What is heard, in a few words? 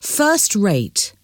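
"first rate", English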